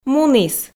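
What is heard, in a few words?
"муніс", Komi, female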